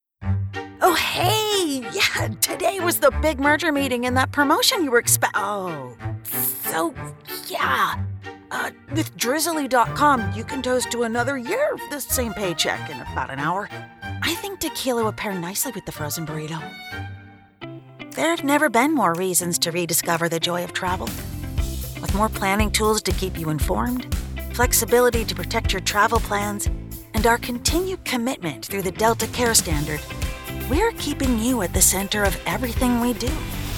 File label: Commercial; Voice Over Actor Demos